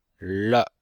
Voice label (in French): "le5", chinois; Cours de chinois